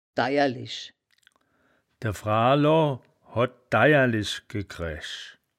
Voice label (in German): "daierlisch", wittlicher